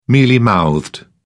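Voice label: "mealy-mouthed", British English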